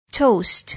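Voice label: "toast", English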